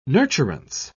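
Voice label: "nurturance", English, British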